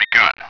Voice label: "begun", Spraak